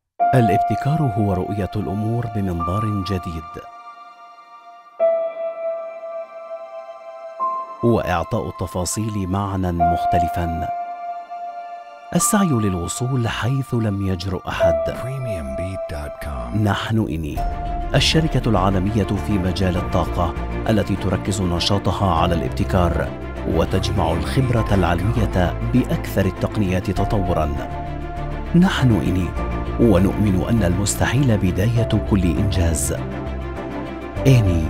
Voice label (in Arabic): arabic commercial